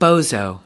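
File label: "bozo", US English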